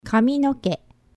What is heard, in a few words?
"kaminoke", japanese